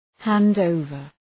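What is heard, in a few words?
"hand over", English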